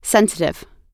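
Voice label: "sensitive", American English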